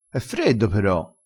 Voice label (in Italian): "pero", crespinese